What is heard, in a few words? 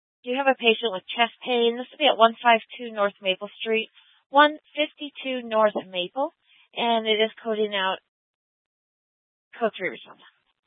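Fire Dispatch, scanner audio recording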